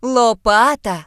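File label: "spade", British English; gcompris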